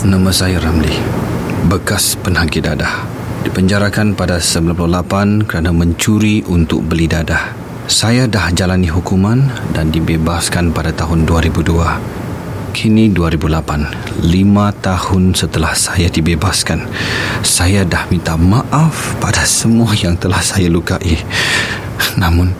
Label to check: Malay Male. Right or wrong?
Right